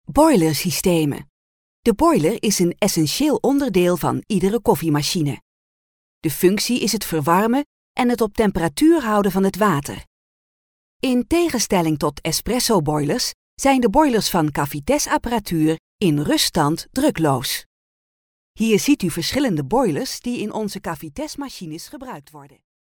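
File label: Dutch, female, e-learning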